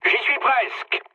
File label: near waypoint mood low, speech fr; War Thunder